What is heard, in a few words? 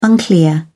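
"unclear", British English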